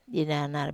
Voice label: "ananar", Wamesa

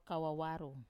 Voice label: "kawawaru", lazy, Wamesa

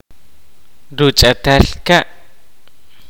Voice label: "tablet where women grind food for", kaqchikel; tablet where women grind food for feasting

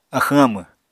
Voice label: "axwñ-amw", apatani

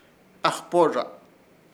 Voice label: "AJPORRZA", Ishir Chamacoco; sandia del monte

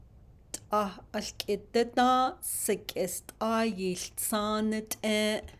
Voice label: It's been quite some time since I've, Navajo; hágoónee’ well, well all right then

